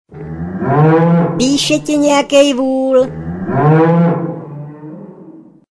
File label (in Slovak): sms hlásky cz